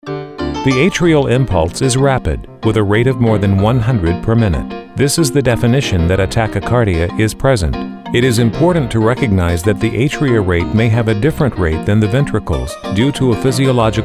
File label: Med Nar